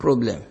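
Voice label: "problem", Nigerian Pidgin, male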